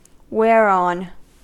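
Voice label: "whereon" English, US; pronunciations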